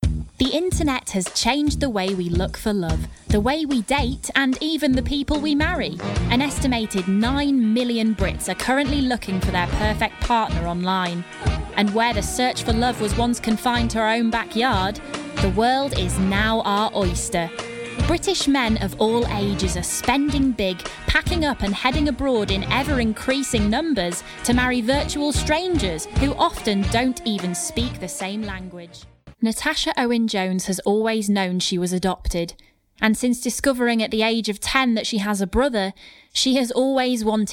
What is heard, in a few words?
Narration